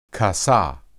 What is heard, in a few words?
"kasah", Hebrew